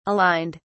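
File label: "aligned", British English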